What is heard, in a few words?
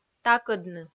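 "такӧдны", Komi, female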